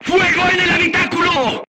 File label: voice message fire in tank, crew tanks spanish